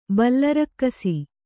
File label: "ಬಲರಕ್ಕಸಿ", Kannada